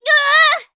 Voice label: hit, en; Cookie Run